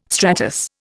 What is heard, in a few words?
"stratus", Indian